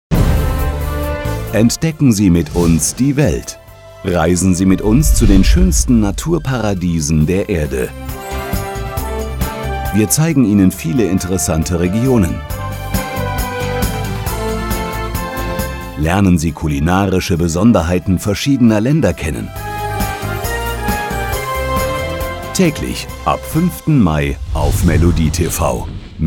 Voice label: Radio Trailer